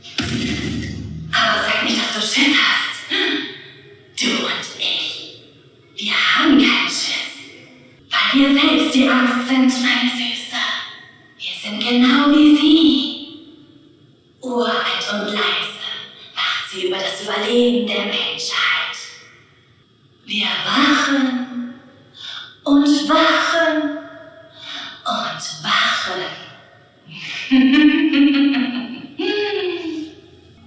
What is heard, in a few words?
Synchrondemo I killed my husband